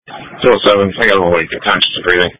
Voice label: Police Dispatch